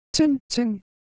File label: Japanese Shape, Ideo Phones